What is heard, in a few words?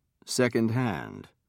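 "secondhand", British English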